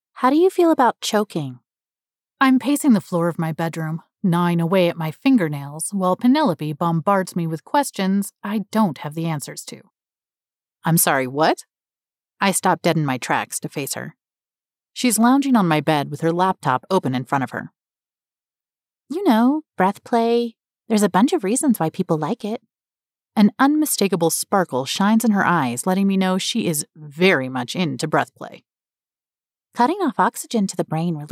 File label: Dark Romance Multiple Characters